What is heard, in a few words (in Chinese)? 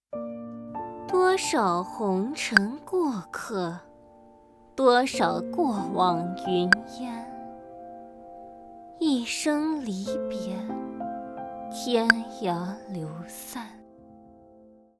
普通话 古韵 风尘